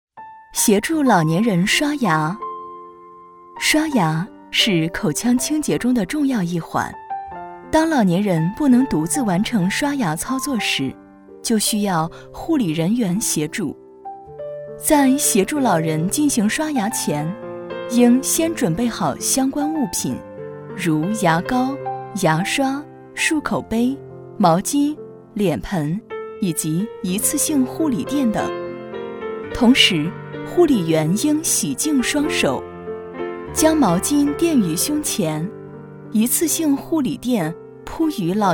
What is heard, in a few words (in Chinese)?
女 课程; 协助老年人刷牙